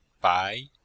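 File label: "bai2", chinese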